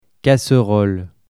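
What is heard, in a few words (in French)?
"casserole" French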